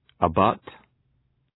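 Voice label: "abut", English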